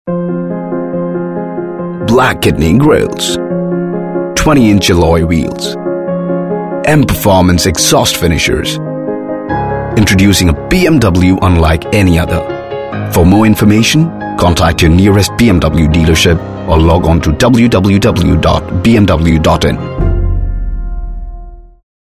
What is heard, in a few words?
English, male voice; BMW